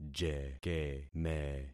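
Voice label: "jekeme", concatenated syllables; Affixing experiment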